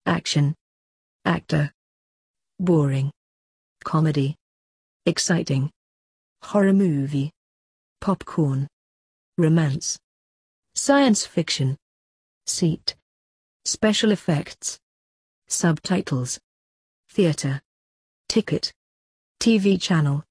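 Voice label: British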